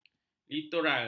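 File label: "litoral", Malay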